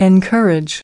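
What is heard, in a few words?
"encourage", English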